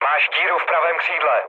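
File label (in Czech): Czech right wing, mood low; War Thunder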